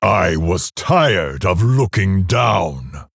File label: Male Orc greetings